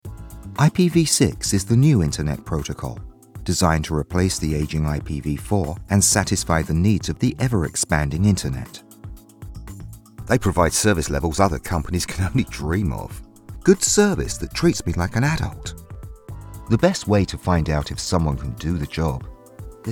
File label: british narration